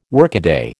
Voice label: "workaday", American

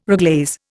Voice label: "reglaze", Indian

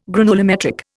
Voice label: "granulometric", Indian

